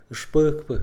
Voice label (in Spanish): "alumno", Mixe, hombre; Cognición; INALI